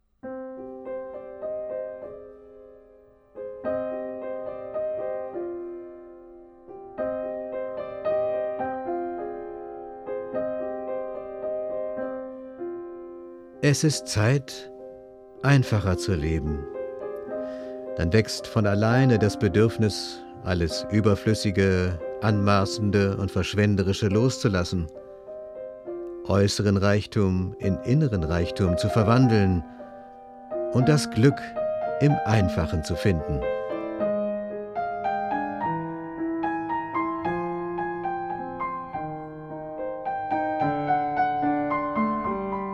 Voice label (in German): Text Musik, Sprecher, Klavier